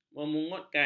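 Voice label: "memungutkan", Malay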